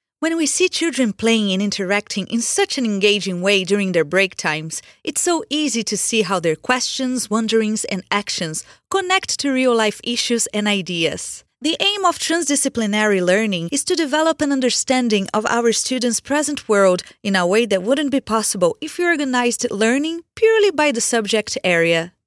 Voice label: English; Portuguese-Brazilian, Male, Home Studio, 30s-50s

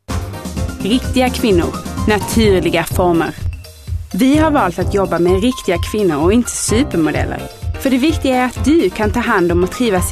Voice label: Swedish

